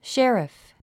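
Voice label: "sheriff", English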